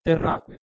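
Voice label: "terh2kwe" Proto-Indo-European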